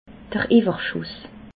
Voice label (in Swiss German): "iwwerschuss", alsace